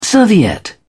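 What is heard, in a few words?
"serviette", British English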